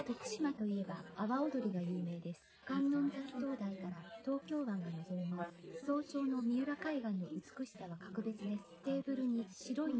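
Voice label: female instantaneous source; SiSEC10; underdetermined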